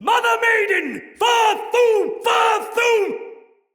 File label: turned tides, soldier male; Dendrit